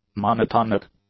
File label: "monotonic", English; adjectives